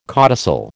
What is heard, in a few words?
"codicil", American